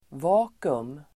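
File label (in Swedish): "vakuum", Swedish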